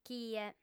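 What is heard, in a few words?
"chie", female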